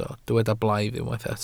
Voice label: "blaidd", Welsh

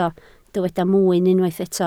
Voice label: "mwyn", Welsh

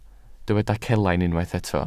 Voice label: "celain", Welsh

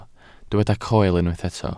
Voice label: "coel", welsh

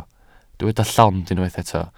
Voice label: "llond", Welsh

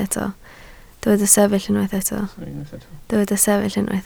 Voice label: "sefyll", Welsh

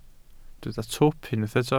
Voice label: "twp", Welsh

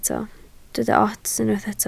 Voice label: "ots", Welsh

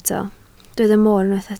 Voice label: "mor", Welsh

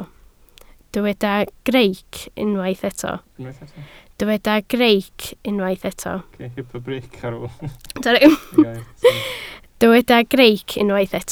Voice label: "greic", Welsh

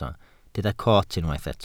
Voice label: "coot", Welsh

